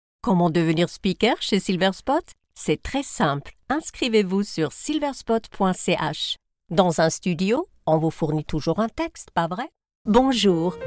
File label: French explainer